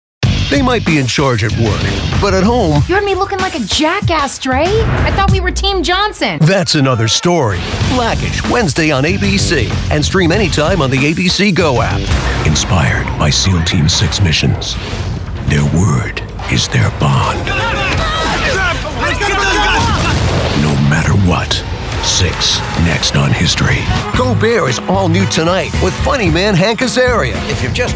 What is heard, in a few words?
male english usa promo compilation; devine voices